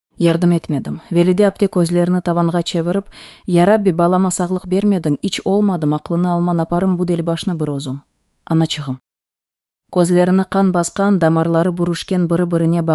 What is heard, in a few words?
synth